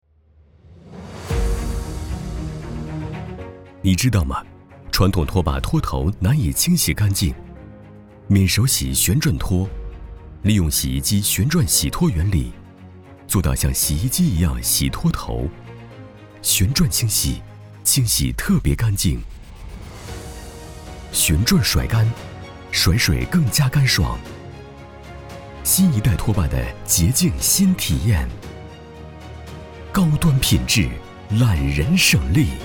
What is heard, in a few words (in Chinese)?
男 专题 产品; 清洁用具